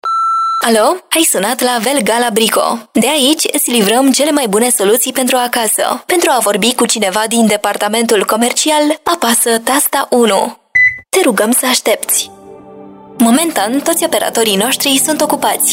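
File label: romanian ivr, Mujer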